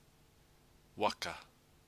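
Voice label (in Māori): "waka", Māori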